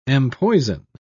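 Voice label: "empoison", British English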